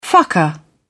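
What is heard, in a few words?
"fucker", British English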